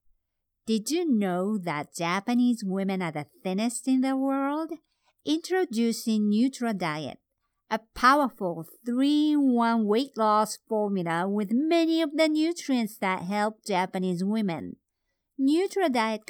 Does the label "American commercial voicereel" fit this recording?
No